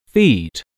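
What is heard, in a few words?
"feed", English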